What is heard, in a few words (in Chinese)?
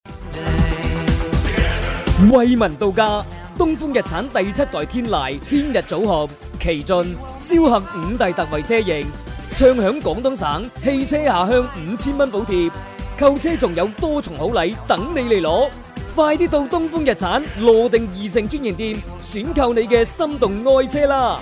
男粤 广告 汽车 气势型, 粤语; 东风日产